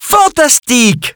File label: French - dialogue, Game Results Winner